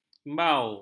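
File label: "imbau", Malay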